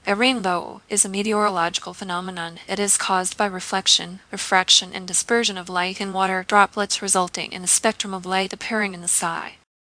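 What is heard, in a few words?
speaker, American English; piper-voices; en US; libritts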